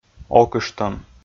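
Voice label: "окыштӧм", Komi, male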